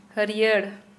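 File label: Santali female green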